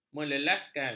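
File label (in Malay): "melelaskan", Malay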